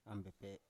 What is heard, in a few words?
"ambepe", Biak